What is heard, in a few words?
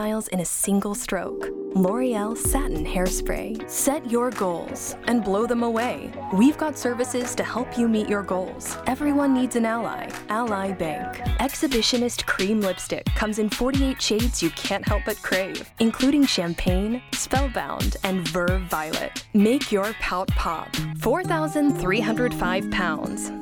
Sultry Secret Smirk